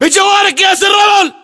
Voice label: landmark near sandbags, localized english battlechatter